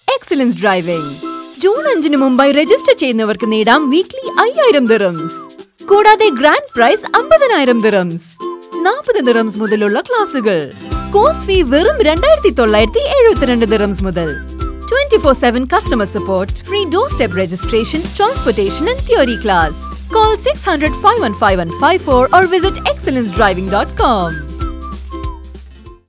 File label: Radio Ad, Malayalam; Radio Advertisement; Commercial Demo